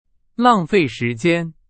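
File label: Chinese, example sentence; hsk3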